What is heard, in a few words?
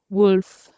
"wulf", Anglo Saxon